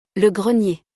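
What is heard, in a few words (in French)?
"Le grenier", French; vocabulaire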